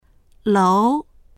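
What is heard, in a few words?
"lou2", Chinese; 楼; 建物; HSK３級必修単語集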